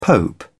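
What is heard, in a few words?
"pope", British English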